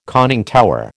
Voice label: "conning tower", American